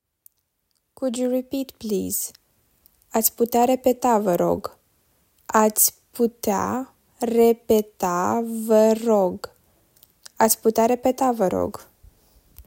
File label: "Could you repeat please", Romanian